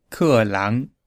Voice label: "ke lang", Mandarin